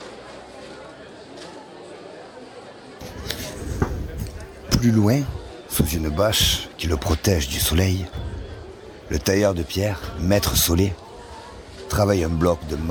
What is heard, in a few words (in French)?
place marche narrateur